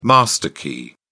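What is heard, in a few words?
"master key", British English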